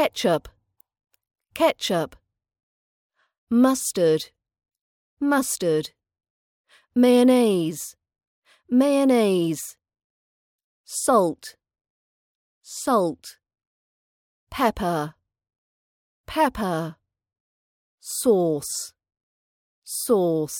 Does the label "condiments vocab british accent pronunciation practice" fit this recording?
Yes